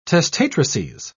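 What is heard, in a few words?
"testatrices", English, British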